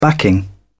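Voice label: "backing", British English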